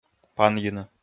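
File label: "панйыны", Komi, male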